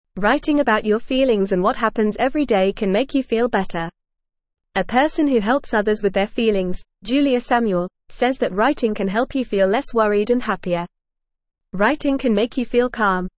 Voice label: Lower Intermediate FAST Reading, English; Writing about Your Feelings Can Make You Feel Bette